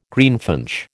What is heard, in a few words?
"greenfinch", American